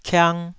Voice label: "kiang2", taiwanese syllable

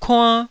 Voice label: "kuann1", Taiwanese syllable

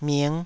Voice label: "ming5", Taiwanese syllable

